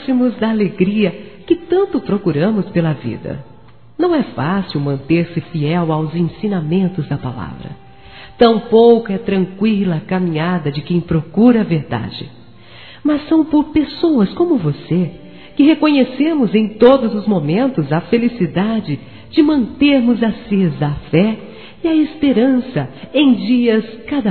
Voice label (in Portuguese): Evangélico Amizade Feminino; Aniversários